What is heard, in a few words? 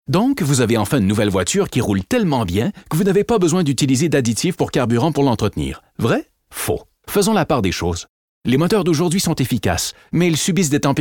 French Canadian; Male, 30s-50s